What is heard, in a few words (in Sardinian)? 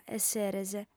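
"esseres", female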